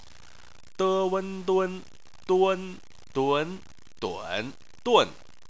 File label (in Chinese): "dun", Chinese